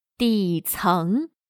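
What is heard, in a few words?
"底层", Chinese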